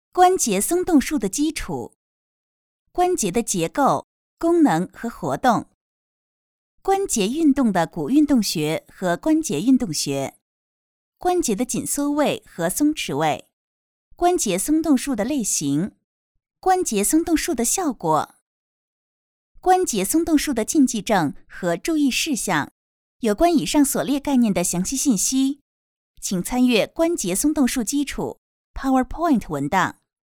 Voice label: Mandarin Female